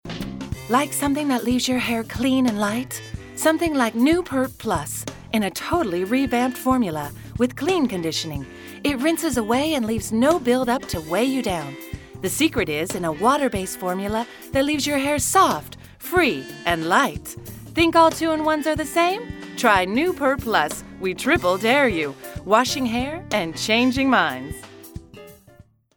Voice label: female american english hair comercial

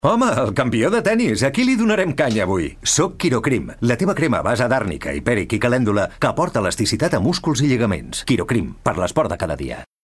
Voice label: male catalan medicine comercial; ideasonora

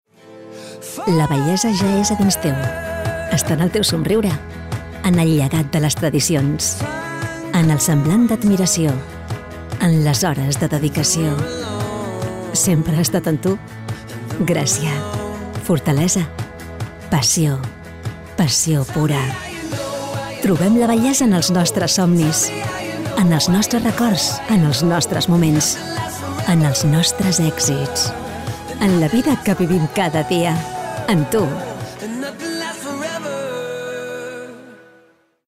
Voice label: female catalan beauty comercial; ideasonora

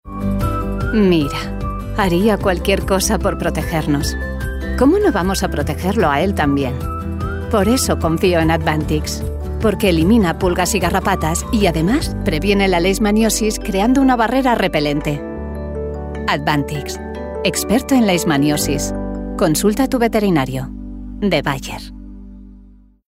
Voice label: female spanish dogs comercial

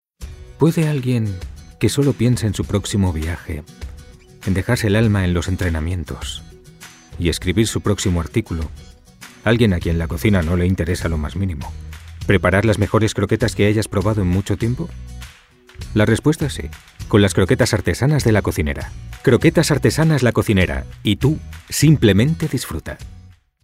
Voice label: male spanish food comercial; ideasonora

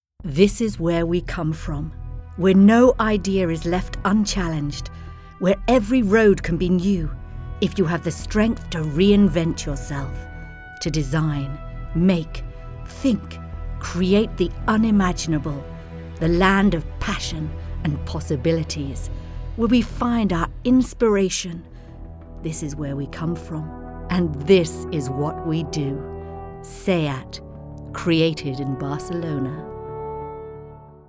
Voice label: female british english car comercial; ideasonora

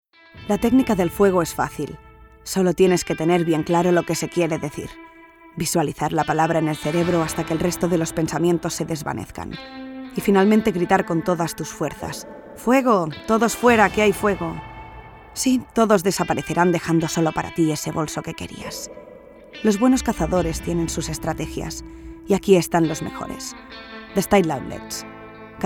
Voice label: female spanish comercial; wallmart; ideasonora

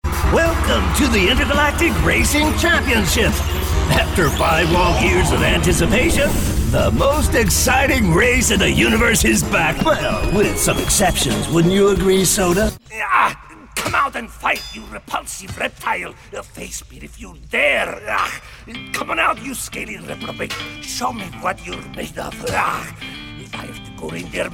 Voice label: male american english cartoon voices; ideasonora

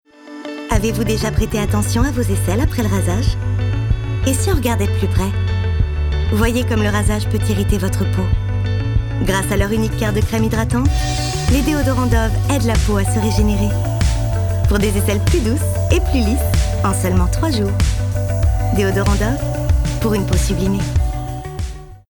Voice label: female french tv comercial; ideasonora

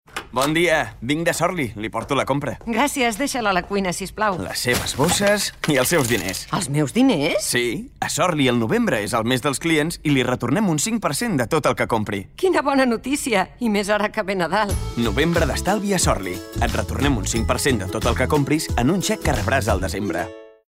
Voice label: male catalan supermarket comercial; ideasonora

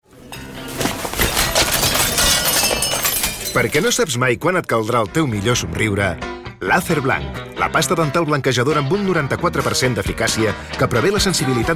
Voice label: male catalan comercial; ideasonora

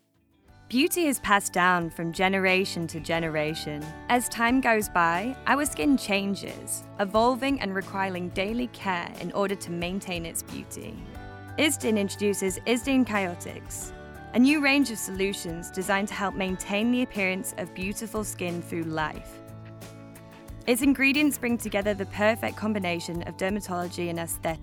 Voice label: female english tv comercial; ideasonora

